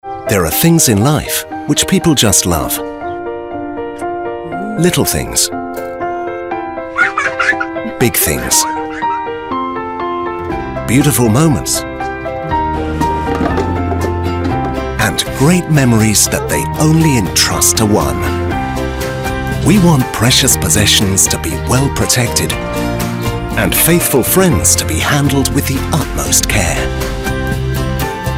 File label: male british english house comercial